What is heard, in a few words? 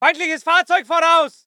voice ger infantry